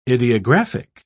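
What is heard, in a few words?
"idiographic", English, British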